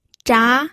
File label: "zha2", pinyin